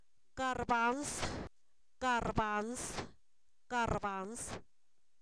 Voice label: chícharo, Zapoteco, san miguel albarradas; comestible

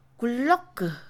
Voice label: loco, Mixe, Mujer; INALI

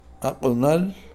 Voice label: tequio, Kakchikel

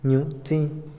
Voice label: llenar, Otomí, hombre; INALI

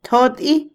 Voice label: "tirar, disparar", Otomí, mujer; Vocabulary; INALI

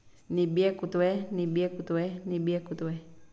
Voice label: "chimenea", Pame; INALI

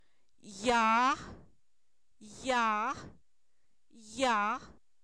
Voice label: Zapoteco, san miguel albarradas; temascal; INALI

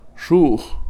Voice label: femenino, Kakchikel

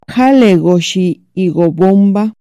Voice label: Para qué sirve esto, Chontal

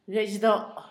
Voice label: miahuatlán, Zapoteco, Asunción Ocotlan; Topónimos; INALI

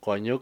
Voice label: "bebé", Cucapá; Parentesco

